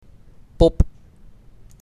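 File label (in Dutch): "Pop", Dutch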